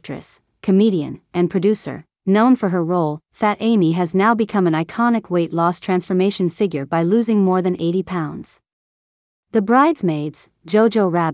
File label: Voiceover; How Did Rebel Wilson Lose Weight